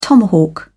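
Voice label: "tomahawk", British English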